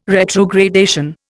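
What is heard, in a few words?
"retrogradation", Indian English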